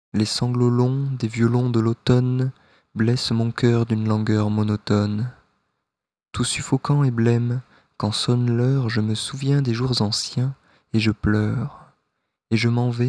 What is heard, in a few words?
verlaine french